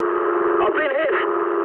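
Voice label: English aircraft damaged mood med; speech; War Thunder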